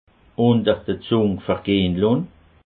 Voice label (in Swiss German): "zung", alsace